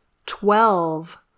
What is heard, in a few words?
"twelve", English